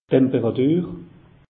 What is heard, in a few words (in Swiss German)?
"temperatur", alsace; meteo